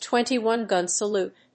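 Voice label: "gun salute", English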